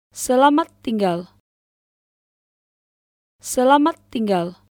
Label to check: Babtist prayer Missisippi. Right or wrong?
Wrong